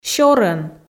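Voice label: "сёрӧн" Komi, female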